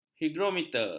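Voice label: "higrometer", Malay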